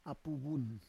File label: "apu vun", Roon